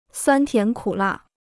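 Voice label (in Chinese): "酸甜苦辣" Mandarin Chinese; suān tián kǔ là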